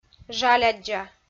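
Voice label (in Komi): "жаляддза", Komi, female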